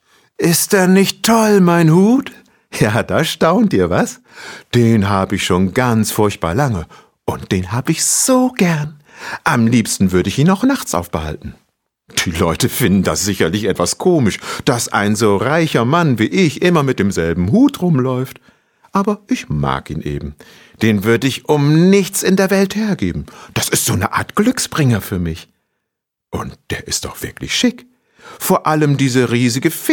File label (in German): hoerspiel